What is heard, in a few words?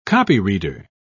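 "copyreader", British English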